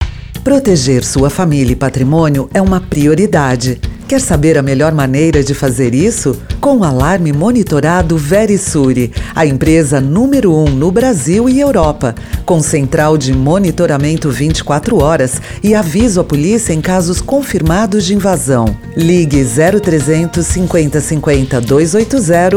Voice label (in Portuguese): spot